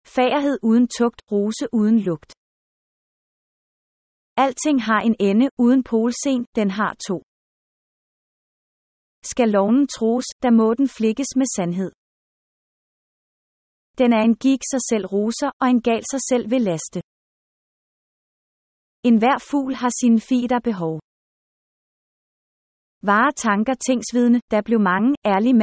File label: danish proverbs